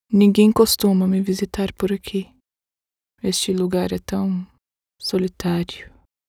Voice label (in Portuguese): apathetic female brazilian portuguese voiceover